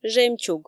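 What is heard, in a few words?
"жемчуг", Komi, female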